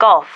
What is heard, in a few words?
"golf", female